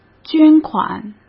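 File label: "捐款", Mandarin Chinese; chinese flashcards; hsk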